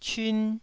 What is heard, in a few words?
"cyun1", Taiwanese